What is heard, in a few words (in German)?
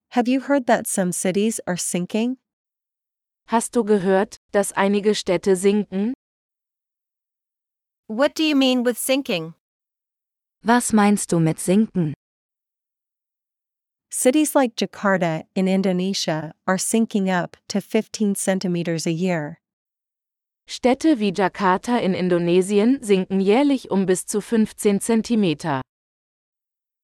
Dialog mit Uebersetzungen; Ressource Wasser; nachhaltigkeit-klimawandel